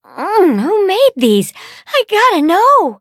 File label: level up, en